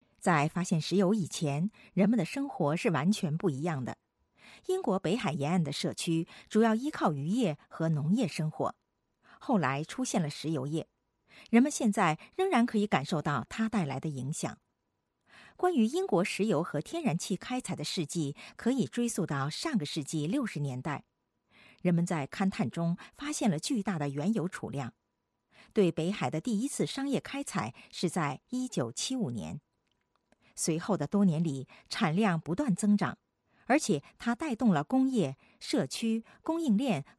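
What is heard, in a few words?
Chinese, general; GoLocalise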